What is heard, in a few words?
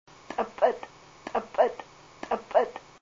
to snap, Haida language